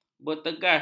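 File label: "bertegas", Malay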